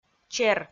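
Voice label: "чер", Komi-Zyrian, female; kpv audio dict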